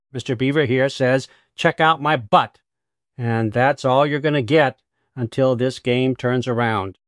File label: upset; fertig classic games